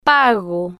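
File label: "pago", slow, Spanish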